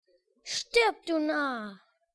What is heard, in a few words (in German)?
Narr